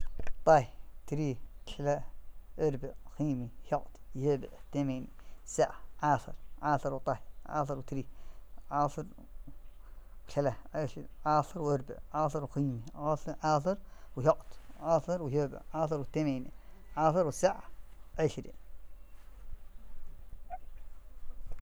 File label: western soqotri numbers feminine